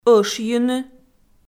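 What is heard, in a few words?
"ӧшйыны", Komi, female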